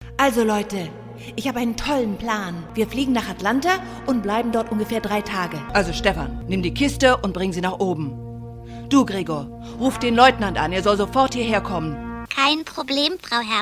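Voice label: German Characters; GoLocalise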